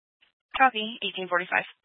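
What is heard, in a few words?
Fire Dispatch, Scanner audio recording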